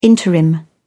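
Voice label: "interim", English, British English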